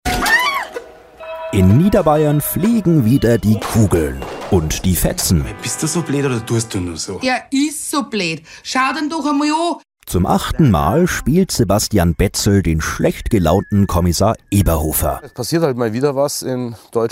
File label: TV Trailer